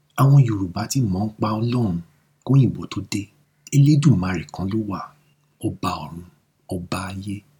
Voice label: YORUBA; Deo Talent Management